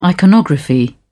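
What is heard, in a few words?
"iconography", British English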